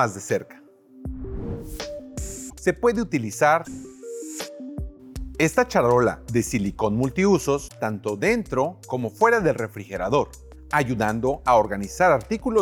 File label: Fridge Mat Spanish